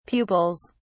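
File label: "pupils", British English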